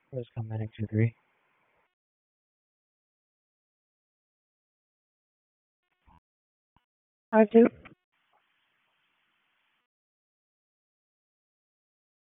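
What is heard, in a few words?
EMS DISP, radio; wake; p25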